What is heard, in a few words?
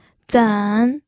"zen3", pinyin